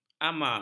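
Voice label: "ama", Malay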